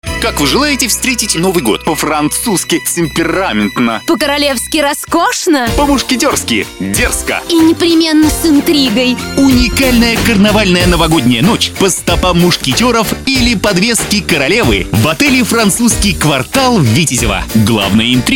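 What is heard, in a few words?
radio advert; Francuzskij kvartal; Kraevaya radiostanciya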